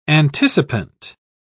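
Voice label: "anticipant", English, British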